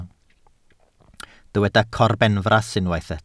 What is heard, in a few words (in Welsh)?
"corbenfras" Welsh